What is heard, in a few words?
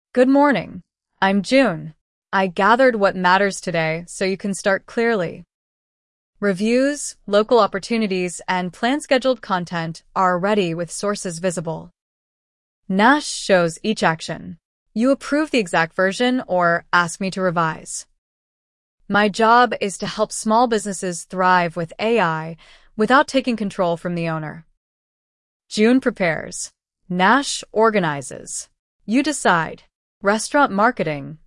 introduction female